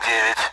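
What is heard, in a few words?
Speech, Russian, AWACS; Digits; DCS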